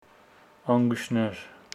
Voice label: Homshetsi many ears